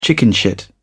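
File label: "chickenshit", British English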